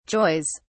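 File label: "joys", British English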